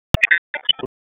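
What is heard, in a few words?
Raleigh Police North District Tactical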